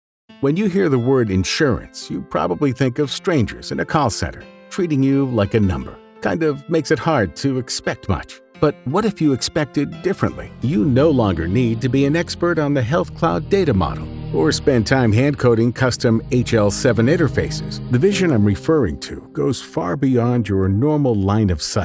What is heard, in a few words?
Conversational Narration w Music